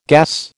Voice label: "gas", American

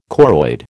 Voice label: "choroid", American

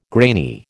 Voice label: "grainy", American

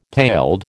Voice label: "paled", American

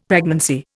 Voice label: "pregnancy", Indian; Dictionary

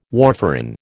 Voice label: "warfarin", American

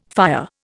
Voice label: "fire", Indian

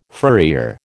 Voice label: "furrier", American

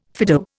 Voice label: "Fido", Indian

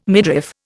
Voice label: "midriff", Indian

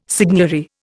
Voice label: "seigniory", Indian

